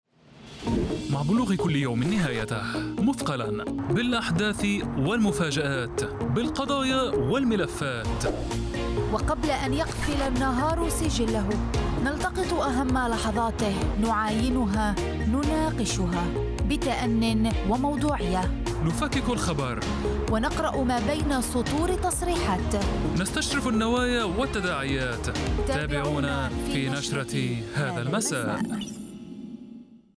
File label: arabic narration